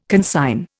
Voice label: "consign", Indian

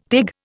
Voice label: "teg", Indian

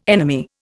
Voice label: "enemy", Indian

